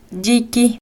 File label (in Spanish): Yique, Mixteco